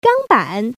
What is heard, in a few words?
gangban, woman; zhuandan